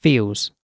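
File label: "feels", British English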